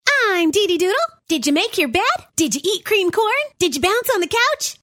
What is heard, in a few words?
Character; Doodlebops Audiobook; DeeDee Doodle